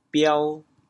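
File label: "biao2", Teochew, chaoyang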